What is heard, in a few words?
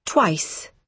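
"twice", British English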